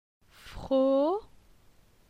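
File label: "Fro", French; phonetique; la syllabe o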